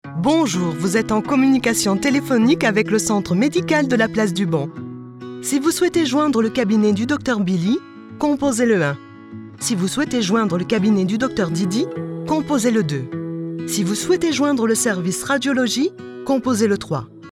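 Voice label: French female IVR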